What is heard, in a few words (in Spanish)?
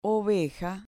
"oveja", Spanish